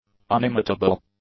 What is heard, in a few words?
"unimitable", english; adjectives